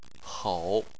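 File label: "hou", Chinese, third tone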